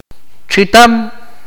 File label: "pig", Akateko